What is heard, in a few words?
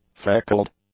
"freckled", English; adjectives